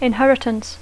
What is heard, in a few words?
"inheritance", English; Dacco